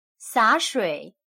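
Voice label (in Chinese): "洒水", Chinese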